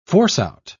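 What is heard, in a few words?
"force-out", en, uk British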